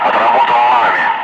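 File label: Russian speech, Ka-50 Wingman; Messages rockets away; DCS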